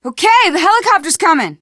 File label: radio used chopper, teen girl, survivor voice